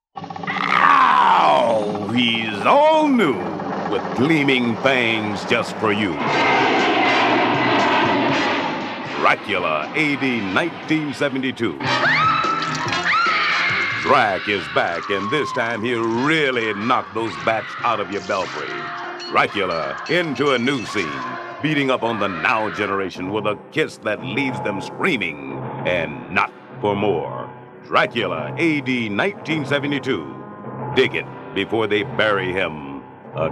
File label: Radio Spots; Dracula A.D. 1972; Crescendo; Black Market